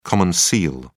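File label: "common seal", British English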